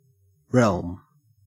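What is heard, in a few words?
"realm", Australian English; pronunciations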